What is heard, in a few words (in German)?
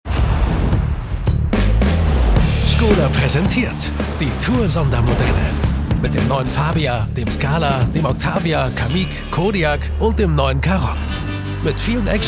Auto Werbung